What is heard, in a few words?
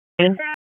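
Police District Dispatch, p25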